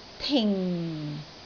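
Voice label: "ting4", Cantonese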